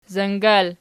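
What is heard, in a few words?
"zangal" nominative, Archi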